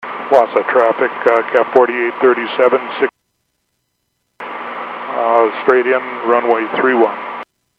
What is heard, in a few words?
Airports 122.7, Radio; KSTE